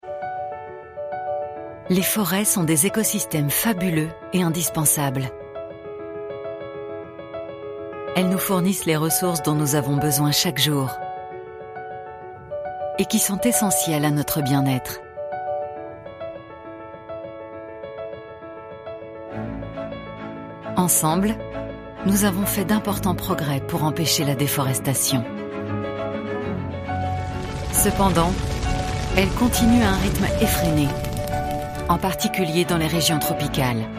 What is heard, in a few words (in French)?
PUB, comedienne voix off; WWF